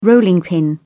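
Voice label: "rolling pin", English, British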